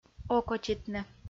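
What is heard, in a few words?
"окотитны" Komi-Zyrian, female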